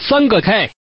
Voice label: Man; guizhou